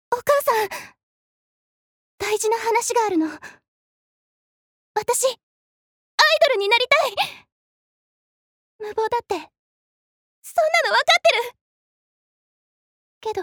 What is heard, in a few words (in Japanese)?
アニメ